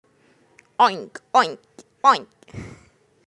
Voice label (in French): Cochon - Anglais